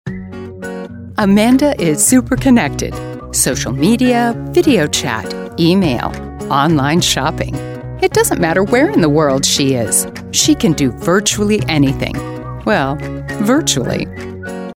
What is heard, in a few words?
Explainer Video